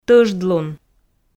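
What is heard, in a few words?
"тӧждлун", Komi, female; audio dict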